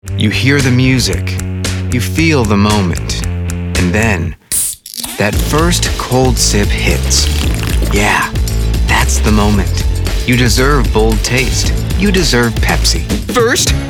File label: Commercial; Demo